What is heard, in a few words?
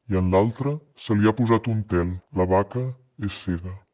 speech female deepened